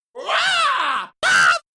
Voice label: Death Noises; JarJar Bink